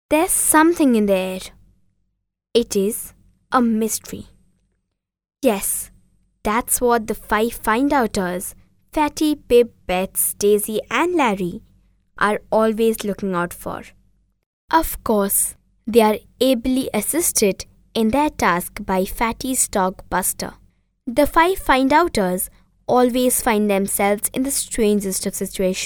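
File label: English Indian Female GIRL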